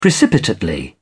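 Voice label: "precipitately", British English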